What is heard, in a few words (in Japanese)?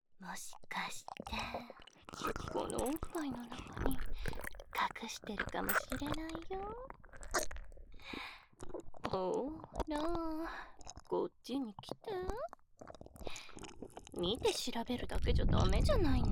万引き人妻に事務所で誘惑されボディチェック手マン、耳舐め、ベロチュー、濃厚フェラ、パイズリされた後四つん這いバックで中出しさせられる音声; 万引き人妻の逆追姦レイプ